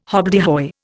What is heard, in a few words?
"hobbledehoy", Indian